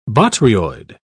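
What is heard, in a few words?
"botryoid", British English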